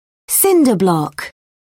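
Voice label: "cinder block", British English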